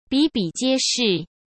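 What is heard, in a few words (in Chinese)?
"比比皆是", Mandarin Chinese; hsk; chinese-flashcards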